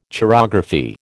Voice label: "chirography", American